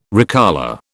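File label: "recolour", British English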